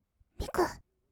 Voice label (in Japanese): "びくっ", kawaii voice; 1200 kawaii voice!!; 色々なワンショット